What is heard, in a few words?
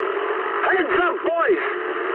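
English near waypoint mood high; speech; War Thunder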